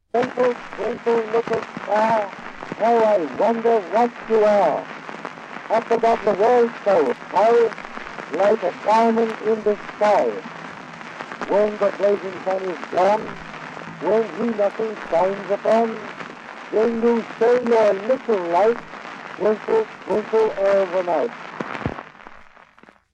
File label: first gramophone recording ever; Great Speeches; 1887